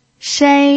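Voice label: "shei" Mandarin Chinese, first tone, pinyin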